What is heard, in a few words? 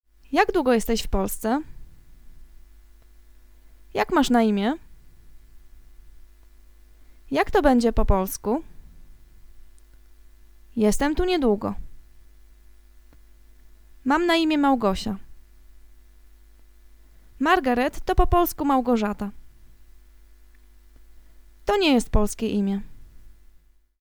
conversation; lektorek; firstyear